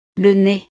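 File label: "le nez", French; partes del cuerpo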